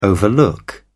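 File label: "overlook", British English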